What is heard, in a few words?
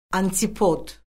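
"antipod", Azerbaijani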